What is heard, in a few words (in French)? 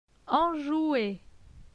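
"Enjoue", French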